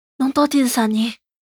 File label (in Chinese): 男孩 对话